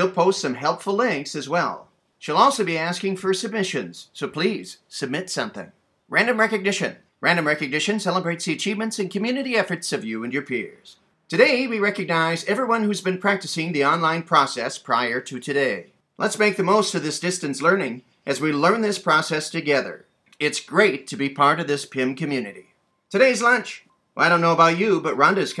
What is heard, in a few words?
Announcements; 1st Day of Quarter 4